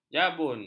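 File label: "jabun", Malay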